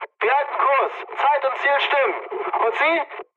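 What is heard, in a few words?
German chat, speech; War Thunder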